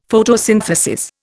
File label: "Photosynthesis", Indian; Dictionary